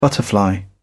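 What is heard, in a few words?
"butterfly", English, British English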